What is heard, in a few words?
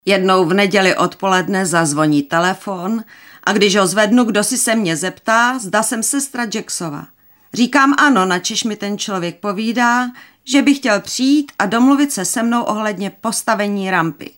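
Female Czech documentary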